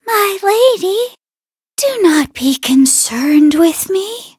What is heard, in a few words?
defeat, en; cookie run